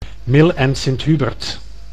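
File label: "Mill en Sint Hubert", Dutch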